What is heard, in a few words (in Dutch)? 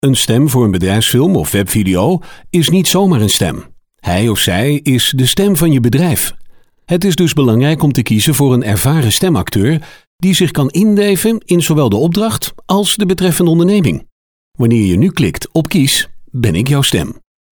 BEDRIJFSFILM